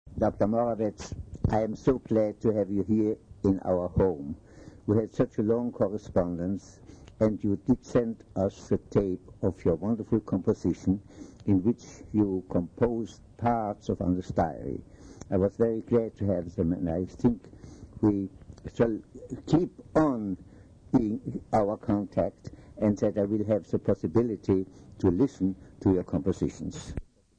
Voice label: Conversation; Diary Anne Frank